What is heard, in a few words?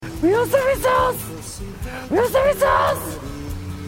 gibberish; papa